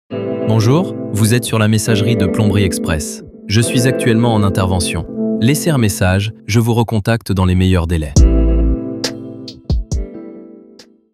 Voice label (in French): exemple repondeur personnalise